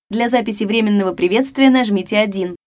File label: Record Temporary Greeting, russian